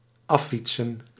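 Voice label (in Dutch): "affietsen", Dutch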